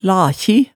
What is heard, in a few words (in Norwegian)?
"lakji", hemsedalsmaalet tale